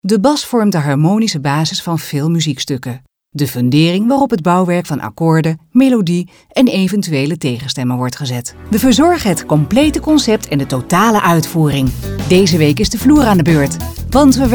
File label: CORPORATE eLEARNING stemdemo